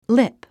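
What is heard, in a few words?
"lip", English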